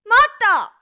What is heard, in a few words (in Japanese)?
待った！, 怒鳴る女・怒る女